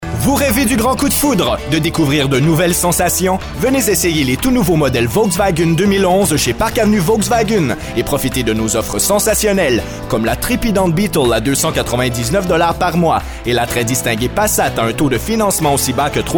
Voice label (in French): Pub volkswagen